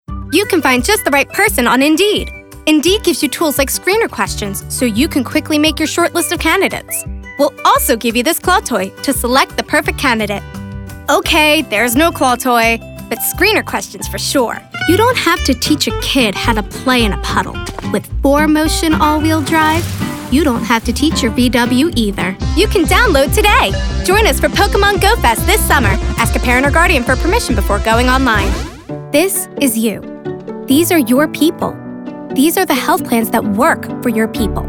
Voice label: commercial